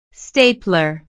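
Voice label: "stapler", English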